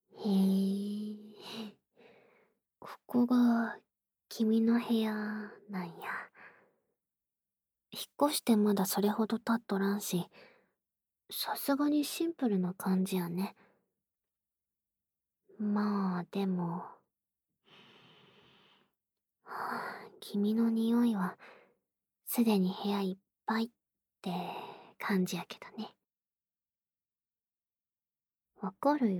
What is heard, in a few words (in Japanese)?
自室で耳舐め囁き＆キスセックス; SE無しver